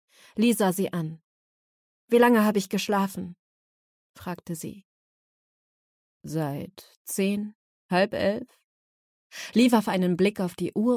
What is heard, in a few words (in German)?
Hörbuch Thriller